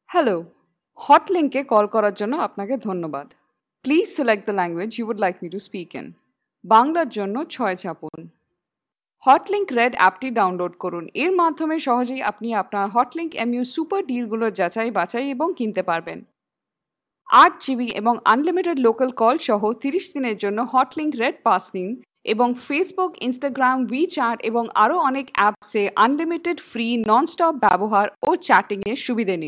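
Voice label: Female, Bengali, India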